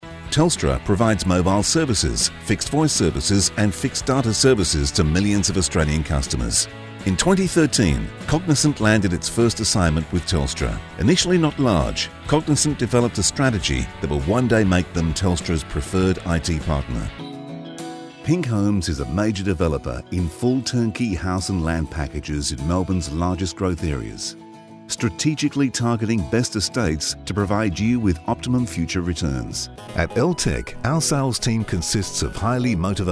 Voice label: Corporate